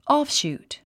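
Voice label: "offshoot", English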